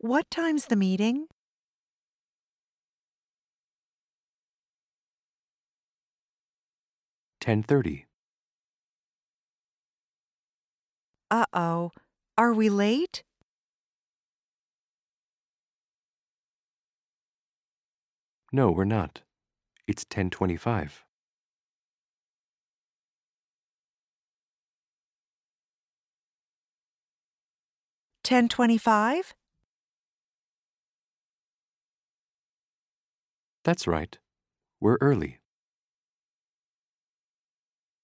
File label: Pronunciation Practice; Connectivity Foundation